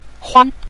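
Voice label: "huan", Mandarin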